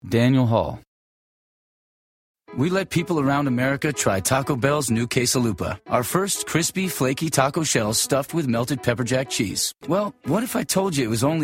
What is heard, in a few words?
commercial; Innovative LA